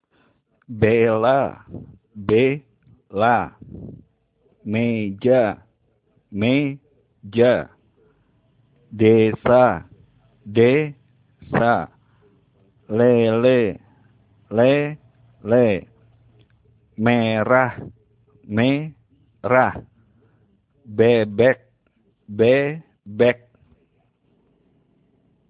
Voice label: How to spell vowel E in Indonesian